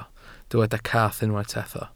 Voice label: "cath", Welsh